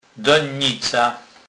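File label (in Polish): "dennica", Kurpie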